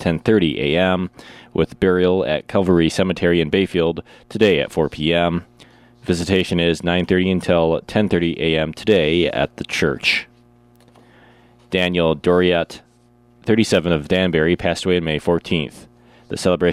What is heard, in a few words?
Funeral Announcements